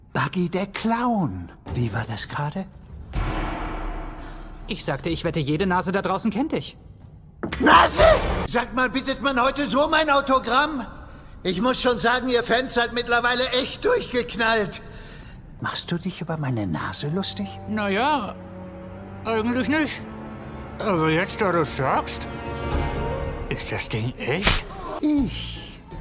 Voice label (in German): Synchron, maenner; OnePiece